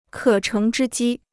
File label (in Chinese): "可乘之机", Chinese